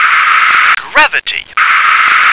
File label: "gravity", English